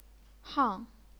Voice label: "hang4", chinese pinyin